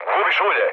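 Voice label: Serbian damaged oil mood low, speech; War Thunder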